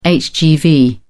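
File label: "hgv", English, British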